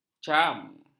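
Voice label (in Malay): "cam", Malay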